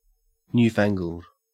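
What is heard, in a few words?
"newfangled", Australian English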